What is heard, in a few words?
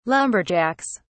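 "lumberjacks", British English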